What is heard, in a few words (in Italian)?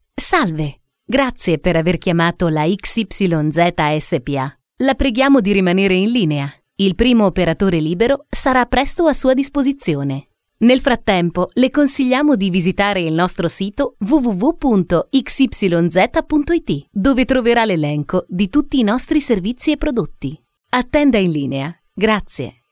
italiano Femminile; messaggi vocali personalizzati